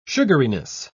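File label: "sugariness", British English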